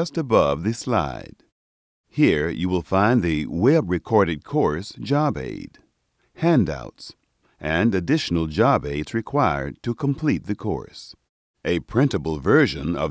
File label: Training, Cardiopulmonary Care